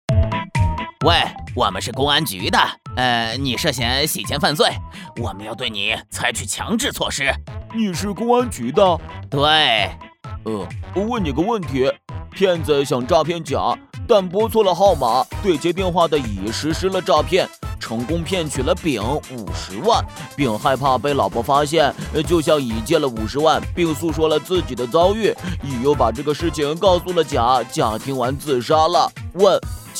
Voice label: 动画角色

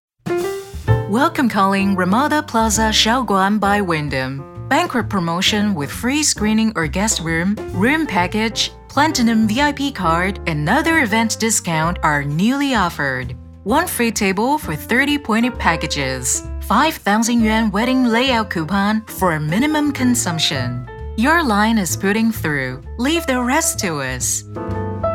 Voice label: 英文广告五星级酒店电话热线

